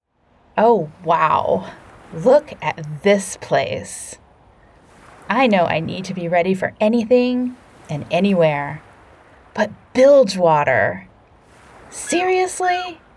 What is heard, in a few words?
Video Games Voice Demo